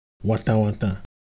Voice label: Ewe Color Visual, ideophones

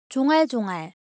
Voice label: Korean Sound, ideophones

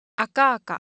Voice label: Japanese Color Visual, Ideo Phones

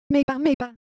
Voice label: Ewe Motion, Ideo Phones

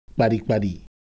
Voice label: Ewe Motion, Ideo Phones

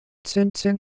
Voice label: Japanese Sound No Pros, Ideo Phones

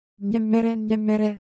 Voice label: Siwu Motion

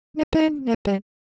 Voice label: Korean, Ideo Phones; Motion

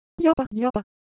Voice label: Japanese Texture, Ideo Phones

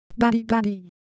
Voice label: Ewe Motion, ideophones

